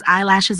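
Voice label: "Eyelashes", pronunciation; body parts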